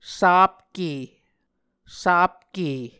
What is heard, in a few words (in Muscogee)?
"sapke", Muscogee